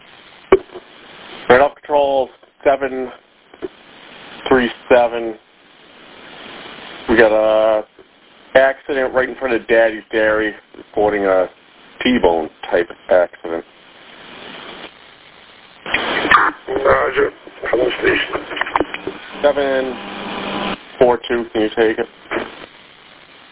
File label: Police Radio